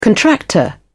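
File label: "contractor", British English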